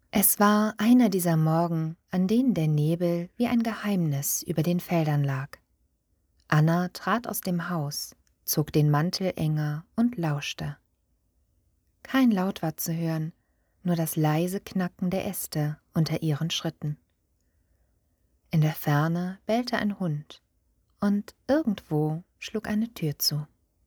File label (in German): Hörbuch - Roman